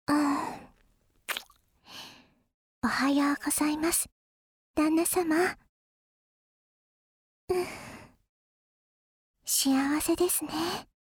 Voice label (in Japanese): ちゅっ…おはようございます…旦那様っ…ふふっ…幸せですね, ASMR アラーム音声